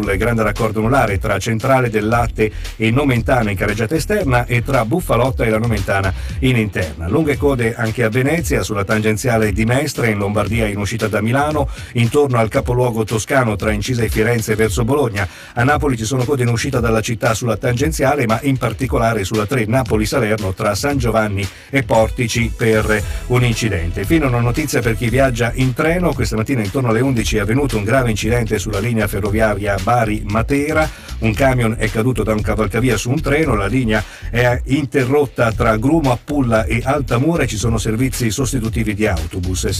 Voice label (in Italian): radio Traffico; Play Radio; (RCS)